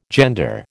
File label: "gender", American